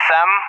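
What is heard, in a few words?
"SAM", English, Russia; DCS; Allied Flight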